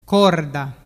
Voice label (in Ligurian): "corda", dialettu